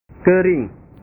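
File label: "kering", Indonesian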